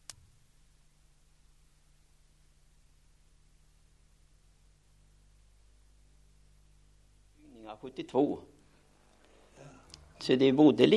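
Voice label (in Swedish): Grebo Östergötland, Svenska sagor, dialekter; Folkminnen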